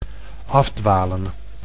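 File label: "afdwalen", Dutch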